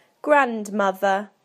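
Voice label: "grandmother", British English; PictureDictionary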